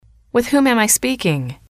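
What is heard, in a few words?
with whom am I speaking, English, female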